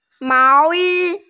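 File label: "mao yi", Mandarin Chinese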